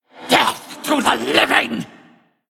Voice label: Male Undead Attack